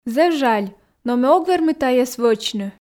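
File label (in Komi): зэв жаль но ме ог вермы тайӧс вӧчны, Komi, female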